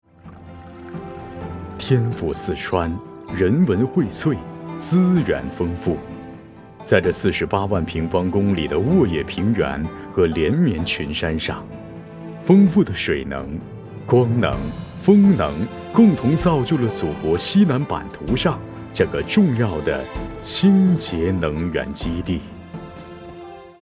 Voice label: 四川

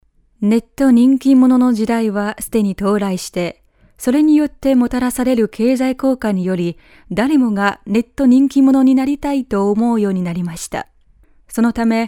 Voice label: 新闻类

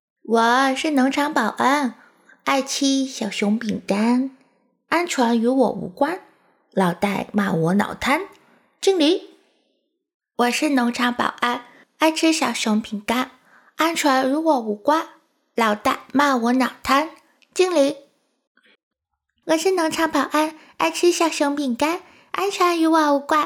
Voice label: 奶音 卡通 儿童音

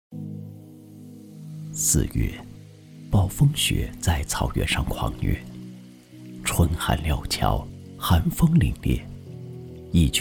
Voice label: 纪录片动物世界

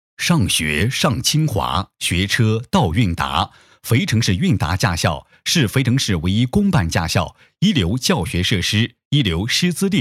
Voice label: 温暖 亲和

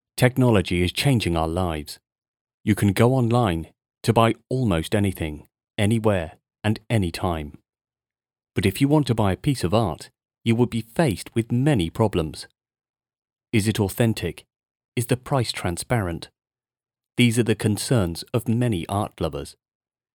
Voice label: 外籍 英语 英式 口音 神秘 大气

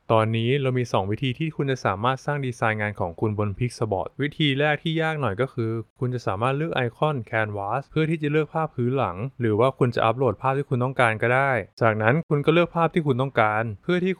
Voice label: 泰语配音

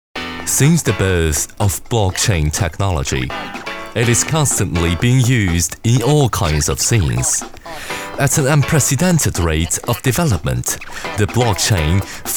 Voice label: 英文 专题

